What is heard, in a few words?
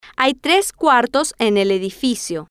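hay Tres Cuartos En El Edificio, Spanish, female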